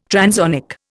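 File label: "transonic", Indian English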